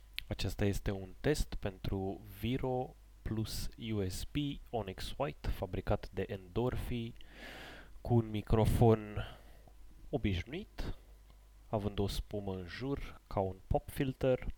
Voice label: mic test; endorfy viro plus usb onyx white